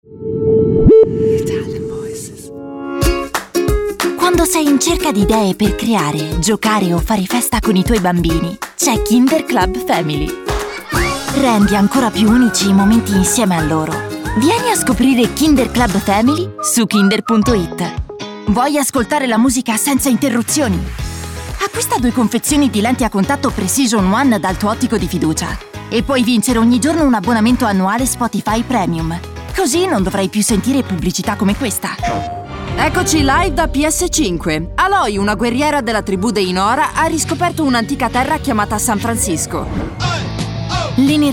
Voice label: ITALIAN VOICES Upbeat Commercials